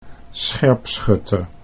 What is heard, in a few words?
"scherpschutter", Dutch